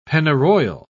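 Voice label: "pennyroyal", English, British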